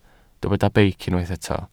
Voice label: "beic", Welsh